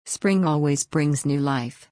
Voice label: fast